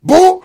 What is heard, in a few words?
heavy no